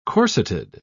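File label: "corseted", British English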